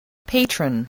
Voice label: "patron", English, voice

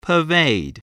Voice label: "pervade", voice, English

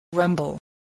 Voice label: "rumble", voice spelling

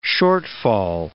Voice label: "shortfall", English

